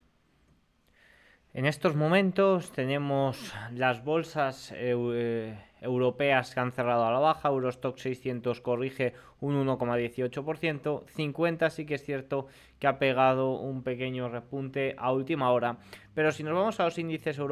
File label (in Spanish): radio; europa y giro alcista de los indices americanos a la espera de powell